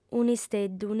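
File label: "un'isteddu", Sardinian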